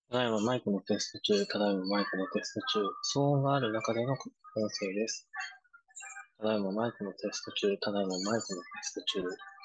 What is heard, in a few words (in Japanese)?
騒音ありのマイクテスト